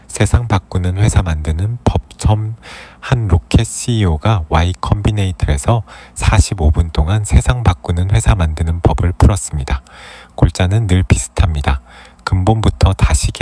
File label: audiobook ko; own your stack world changing